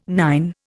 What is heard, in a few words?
"nine", Indian